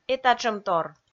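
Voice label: "этатшӧмтор", Komi, female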